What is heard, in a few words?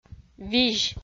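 "визь", Komi, female; audio dict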